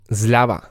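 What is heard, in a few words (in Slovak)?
"zlava", Slovak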